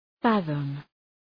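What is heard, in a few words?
"fathom", English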